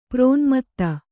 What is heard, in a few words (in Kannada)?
"ಪ್ರೋನ್ಮತ್ತ", Kannada